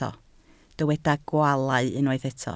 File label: "gwaalau", Welsh